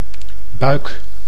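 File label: "buik", Dutch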